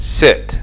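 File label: "sit", English; fonetica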